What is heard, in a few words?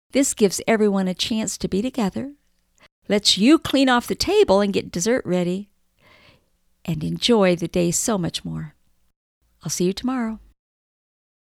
Christmas Dinner Crowd, VOICE CONTENT ONLY